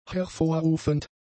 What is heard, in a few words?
"hervorrufend", German; adjectives